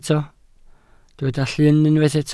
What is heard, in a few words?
"llyn", Welsh